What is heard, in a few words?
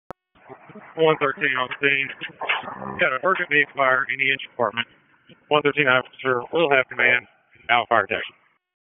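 FIRE Operations, p25; FIRE OPS 3; Wake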